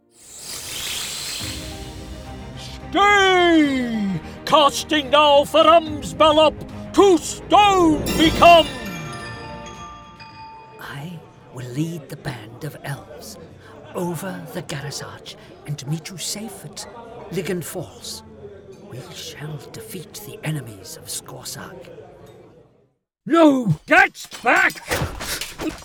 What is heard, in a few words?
Fantasy and Creature